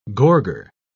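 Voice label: "gorger", English, British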